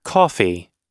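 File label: "coffee", American English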